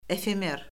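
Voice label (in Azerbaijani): "efemer", Azerbaijani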